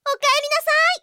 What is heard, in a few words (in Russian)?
zvuki s golosom iaponskoi anime devushki